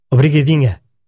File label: thanks, portuguese